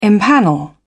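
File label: "empanel", British English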